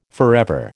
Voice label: "forever", American